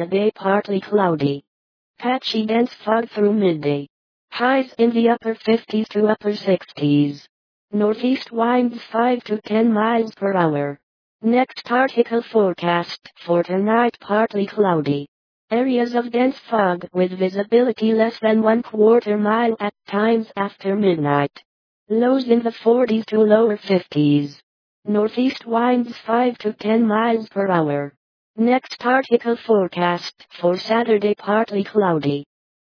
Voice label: Weather San Jose CA Female